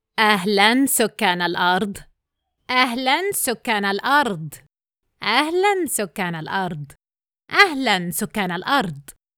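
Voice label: Alien Greetings; Gaming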